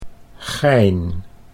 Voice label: "gein", Dutch